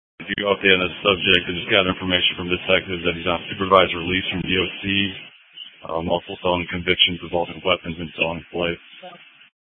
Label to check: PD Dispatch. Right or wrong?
Right